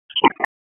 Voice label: Police Northwest District Tac 1; Raleigh; wake

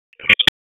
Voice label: Raleigh Police Northeast District Tac, p25; wake

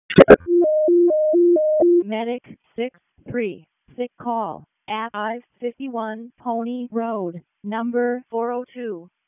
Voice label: EMS ALERT, p25

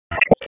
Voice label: RFD HQ DISP, P25 recordings

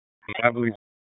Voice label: FIRE OPS, p25; wake p25

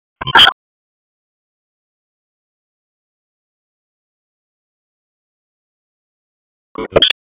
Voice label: Police Southwest District Tac 1, p25; Raleigh; wake

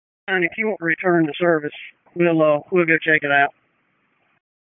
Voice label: FIRE OPS, p25

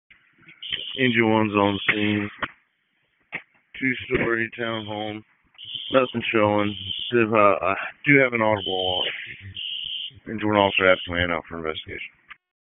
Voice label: FIRE OPS, p25; wake

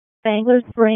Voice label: RFD ALERT, P25 recordings

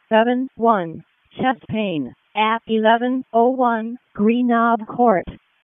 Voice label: EMS ALERT; wake

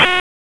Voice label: Raleigh Police Northeast District Tac, p25

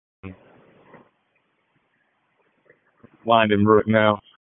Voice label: FIRE OPS, p25; wake

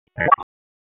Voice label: Raleigh Police Northeast District Tac, p25

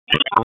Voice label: Police Southwest District Tac 1; Raleigh; wake; p25

